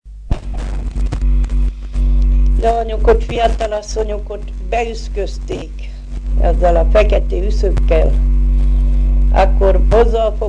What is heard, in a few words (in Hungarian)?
nyelvjaras gyujtesek